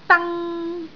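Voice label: "dang1", Cantonese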